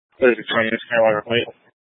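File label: Police Dispatch, scanner audio recording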